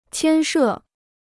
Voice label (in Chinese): "牵涉", Chinese